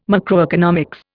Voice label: "macroeconomics", Indian

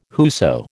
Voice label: "whoso", American

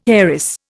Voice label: "heiress", Indian

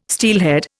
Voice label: "steelhead", Indian

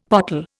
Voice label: "pottle", Indian; Dictionary

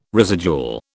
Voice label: "residual", British

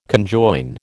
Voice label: "conjoin", American

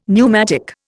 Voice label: "pneumatic", Indian; Dictionary

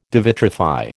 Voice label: "devitrify", American

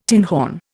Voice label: "tinhorn", Indian

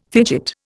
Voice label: "fidget", Indian

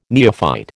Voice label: "neophyte", American

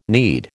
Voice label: "knead", American

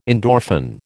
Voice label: "endorphin", American

